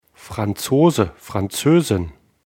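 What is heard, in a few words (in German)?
"Franzose", German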